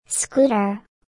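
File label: "scooter", English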